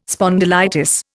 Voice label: "spondylitis", Indian